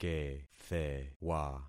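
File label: "kethewa", concatenated syllables; Affixing experiment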